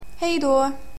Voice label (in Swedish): "hej da", swedish, Greetings